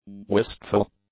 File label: "wistful", english; adjectives